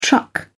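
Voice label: "truck", British English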